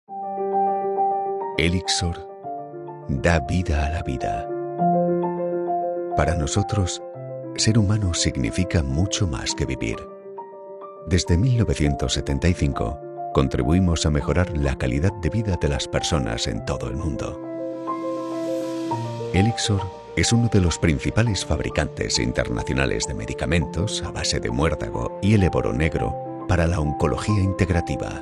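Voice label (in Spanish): spanish medical narration, Español voice actor, Una voz grave; requiera transmitir seguridad; Narración médica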